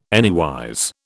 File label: "anywise", British